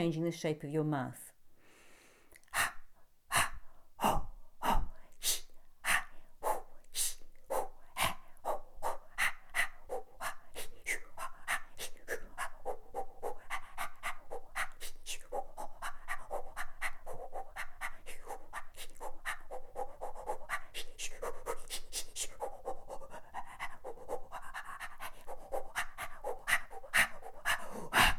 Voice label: unvoiced